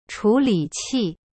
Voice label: "chu3li3qi4", Chinese; cyberchinese

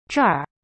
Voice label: "这儿" zhe r, chinese

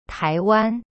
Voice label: "台" tai, chinese; cyberchinese

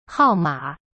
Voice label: "号码", Chinese; hao ma

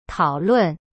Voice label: "讨论" tao lun, chinese; cyberchinese

